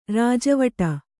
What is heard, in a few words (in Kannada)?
"ರಾಜವಾಡೆ", Kannada